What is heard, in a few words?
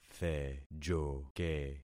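"thejoke", concatenated syllables; Affixing experiment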